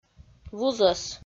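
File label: "вузӧс", Komi, female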